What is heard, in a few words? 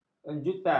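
"enjutan", Malay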